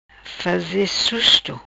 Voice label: "Faze Susto", Macanese

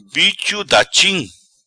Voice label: "Bicho Dachim", Macanese; Variedade de libélula

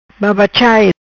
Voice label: "Babachai", Macanese; Criança pequena; Small child; Bébé; Baby

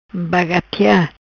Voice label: "Bagatia", Macanese

